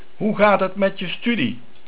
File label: Studie, Dutch